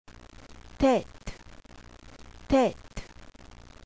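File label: "teth", Hebrew letter; qabalah; alfabeto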